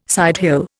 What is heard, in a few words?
"sidehill", Indian